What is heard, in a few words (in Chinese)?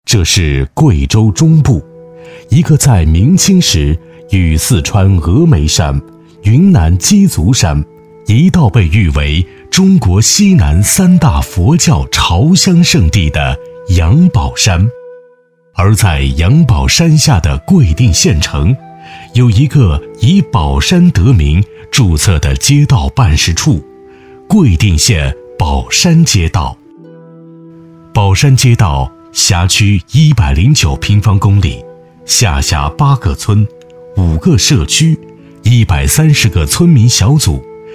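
男S347 国语 男声 纪录片-城市纪录片-成熟抒情 低沉 大气浑厚磁性 沉稳 娓娓道来; 贵州宝山城市纪录片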